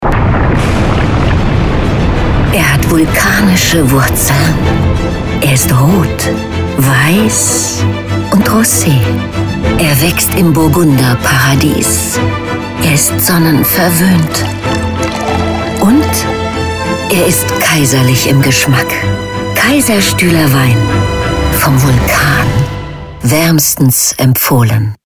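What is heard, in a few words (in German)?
Wein Funk Musik, werbestimmen für werbung; sprecher stimmen und marken; Kaiserstuehler Wein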